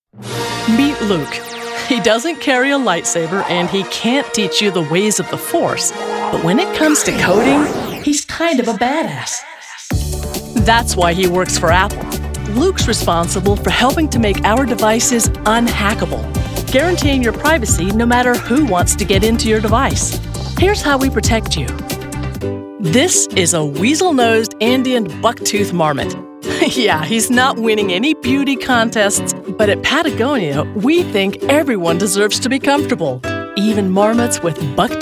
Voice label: explainer